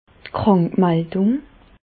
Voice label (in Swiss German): "krankmaldung", Bas Rhin; medecine; arrêt de travail